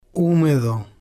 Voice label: "humedo", spanish